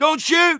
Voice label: surrender, English Voice